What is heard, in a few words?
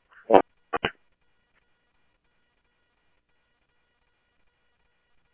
Police, scanner audio